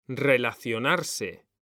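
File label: "relacionarse", Spanish, male; mekanta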